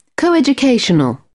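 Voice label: "coeducational", British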